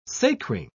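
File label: "sacring", English, British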